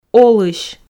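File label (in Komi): "олысь", Komi, female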